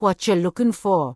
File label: Troll Female Laid Back NPC Vendor